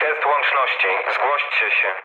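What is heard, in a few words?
Polish chat; War Thunder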